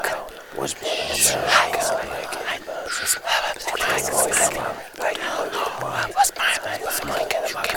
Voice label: Male Conspiracy Voices Loop